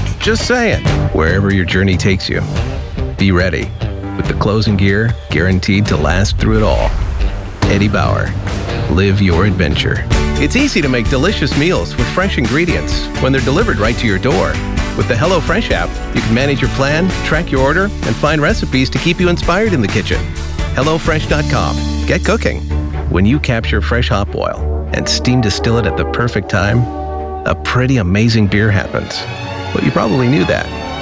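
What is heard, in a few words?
Commercial, voice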